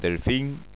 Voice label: "delfin", Occitan